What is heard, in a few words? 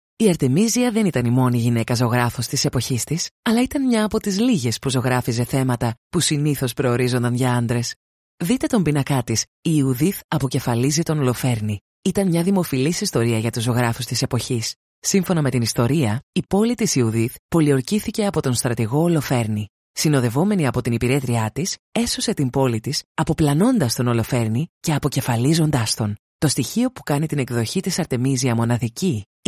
Museum Audio guide sample